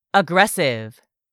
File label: "aggressive", English; 3000 words